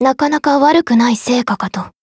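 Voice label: win, Japanese; maturika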